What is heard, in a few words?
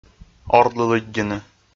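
"ордлы лыддьыны", Komi-Zyrian, male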